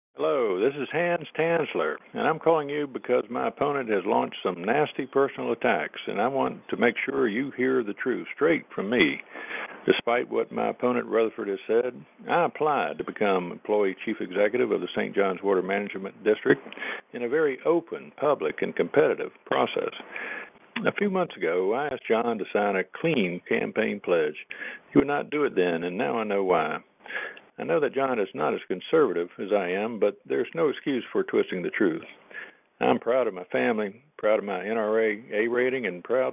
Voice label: Voice Message